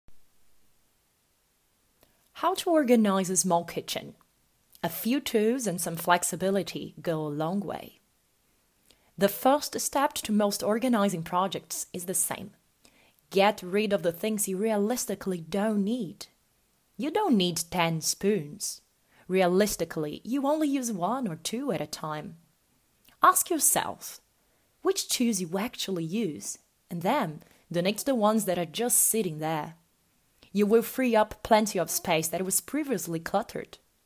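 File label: PTBR AccEN, Brazilian Portuguese accented English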